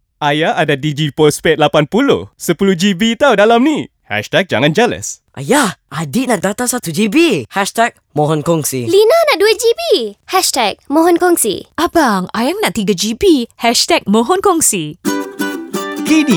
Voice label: malay male